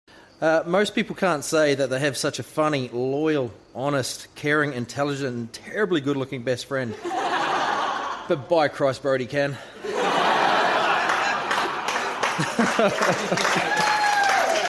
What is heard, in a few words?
comedy